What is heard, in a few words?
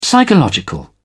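"psychological", British English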